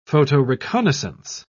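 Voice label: "photoreconnaissance", British English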